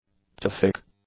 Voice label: "beautific", English; adjectives